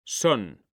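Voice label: "son", Spanish, male; mekanta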